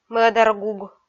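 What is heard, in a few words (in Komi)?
"мӧдаргуг", Komi, female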